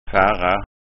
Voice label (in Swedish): "farra", framtidsskånska; Fara Resa; Rejse; Travel